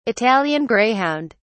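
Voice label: "italian greyhound", British English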